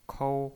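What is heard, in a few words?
"kou", first tone, pinyin voice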